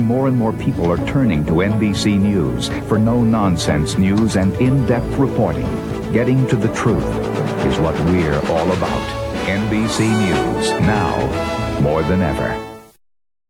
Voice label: nbc night news promo; us